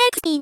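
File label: "xspin", voice; Techmino; rin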